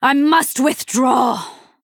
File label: Female Night Elf Concede